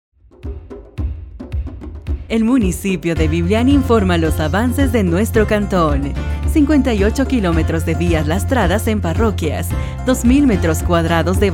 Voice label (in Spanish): cuña radial